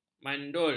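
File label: "mandul", Malay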